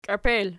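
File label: "kiepel" nominative, Archi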